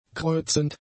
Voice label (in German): "kreuzend", German; adjectives